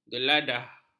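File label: "geladah", Malay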